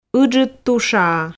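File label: "ыджыд тушаа", Komi, female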